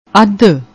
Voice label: "addd", Punjabi; ਅੱਧ